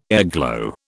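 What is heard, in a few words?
"airglow", British English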